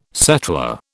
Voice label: "settler", British

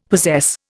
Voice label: "possess", Indian; Dictionary

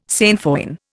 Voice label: "sainfoin", Indian

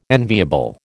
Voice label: "enviable", American

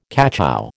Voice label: "cachou", American

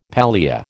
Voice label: "palea", American

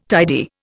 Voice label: "tidy", Indian

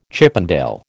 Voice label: "Chippendale", American

